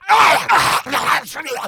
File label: pain; nuke; redead